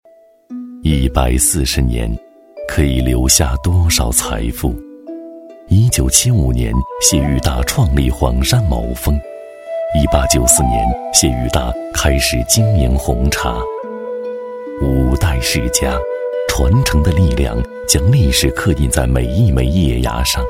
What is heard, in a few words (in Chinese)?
男; 罗店龙船